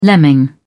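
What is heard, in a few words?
"lemming", British English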